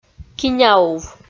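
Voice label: "киняув", Komi, female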